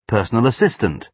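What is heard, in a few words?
"personal assistant", British English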